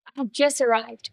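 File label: Voice Acting es, female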